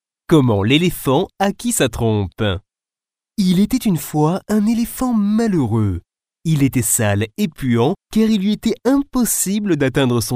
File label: french male storytelling